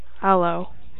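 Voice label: "aloe", USA, female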